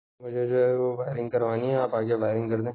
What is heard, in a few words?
Voice Note